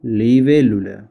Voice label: Dragonfly spanish